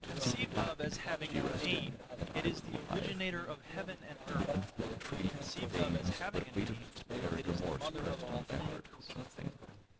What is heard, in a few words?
male inst src; SiSEC08; SiSEC underdetermined